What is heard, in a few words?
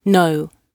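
"know", British English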